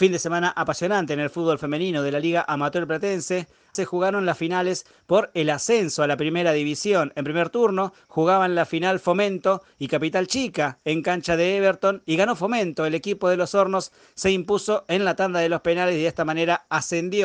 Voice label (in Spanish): Reporte deportivo